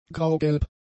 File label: "graugelb", German; adjectives